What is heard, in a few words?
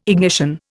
"ignition", Indian